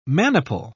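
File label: "maniple", British English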